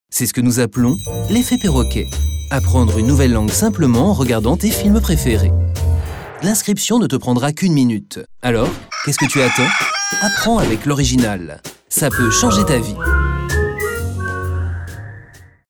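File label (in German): French Fremdtake Freundlich, voices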